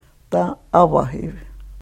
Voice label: karuk

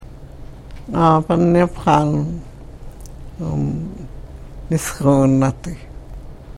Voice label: karuk

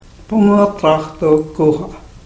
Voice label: karuk

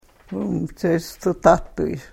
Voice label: karuk

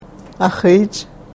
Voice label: "axiich", karuk

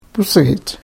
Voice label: "pusihich", karuk

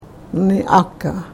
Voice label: "naniakah", karuk

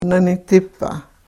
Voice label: "nanitipah", karuk

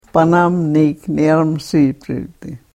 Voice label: karuk

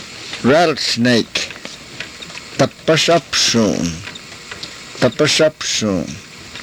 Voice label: "tapasapsuun", karuk

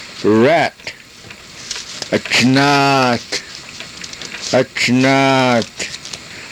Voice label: "achnaat", Karuk

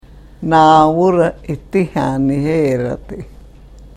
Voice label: karuk

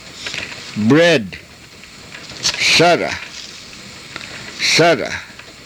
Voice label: "sara", karuk

